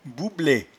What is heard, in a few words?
"boueble", patois jura